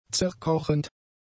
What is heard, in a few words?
"zerkochend", German; adjectives